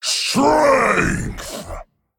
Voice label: Male Dragonkin Play

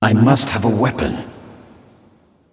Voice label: Male Ethereal Emote ERROR NEED WEAPON

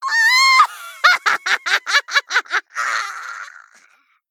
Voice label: Female Gnome Death

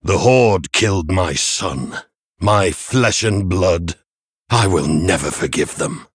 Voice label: Male Human Idle; Hero Daelin; Story Rexxar Mission7 Idle

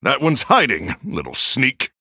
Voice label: Male Human ERROR STEALTH

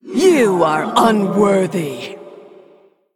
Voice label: Female Spirit Attack

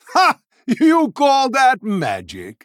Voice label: Male Human Attack

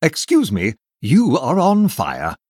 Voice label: Male Human Play

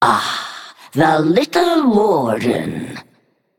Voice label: Female Naga Start

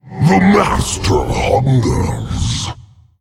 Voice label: Male Faceless Play

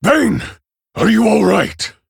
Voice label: Male Orc Ogre Victory

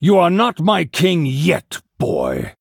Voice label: Male Human Start

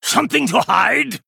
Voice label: Male Draenei Attack

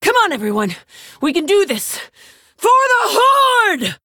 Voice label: Female Orc; Rokara; Guff Mission7 Exchange C

